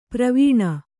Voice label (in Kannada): "ಪ್ರವೀಣ", kannada